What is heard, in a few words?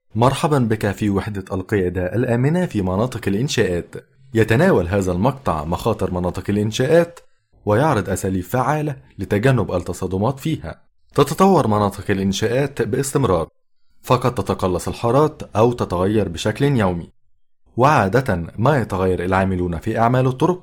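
Arabic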